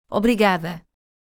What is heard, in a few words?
Portuguese Thank you female speaker; Greetings